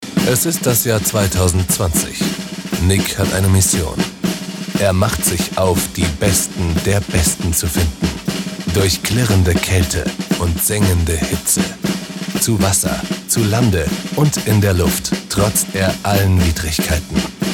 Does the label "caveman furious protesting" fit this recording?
No